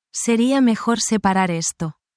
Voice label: spanish, spanish phrases

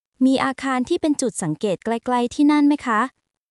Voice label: Thai, basic thai phrases

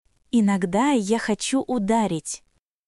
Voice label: Russian, russian phrases insults; ロシア語のネガティブなフレーズ

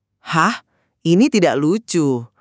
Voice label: indonesian phrases

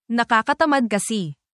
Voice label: tagalog filipino, negative phrases; slander and gossip

